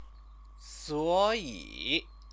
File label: "suoyi", Chinese; 所 以; therefore, so...; adverb